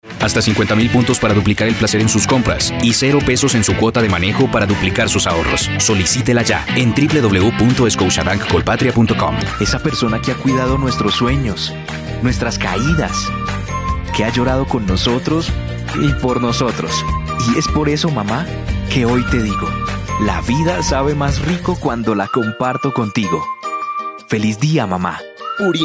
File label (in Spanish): Demo Comercial